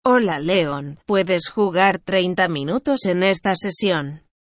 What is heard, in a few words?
speech sample es